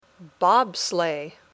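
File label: "Bobsleigh", English word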